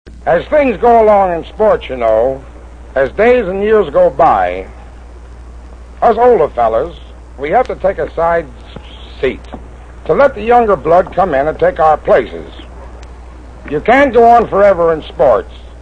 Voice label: Retirement Speech